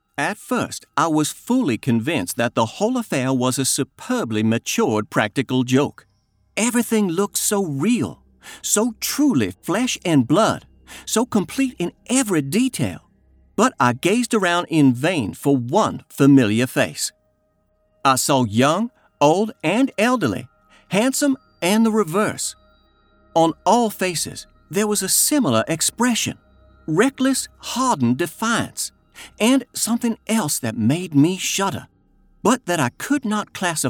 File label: Fiction Suspense M US Southern Gothic